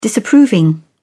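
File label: "disapproving", British English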